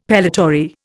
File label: "pellitory", Indian; Dictionary